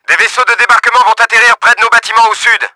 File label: DROPSHIP, french; Battle Engine Aquila; XBOX